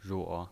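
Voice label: "ruo", Mandarin Chinese pinyin voice, third tone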